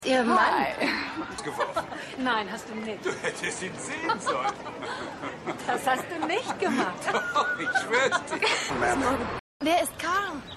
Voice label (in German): Kundin Lehrerin Freundin Schuelerin